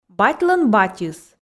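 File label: "батьлӧн батьыс", Komi, female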